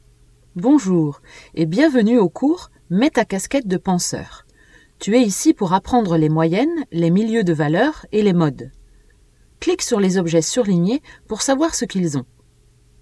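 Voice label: French, female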